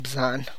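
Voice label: Tlacochahuaya Zapotec; brother of a female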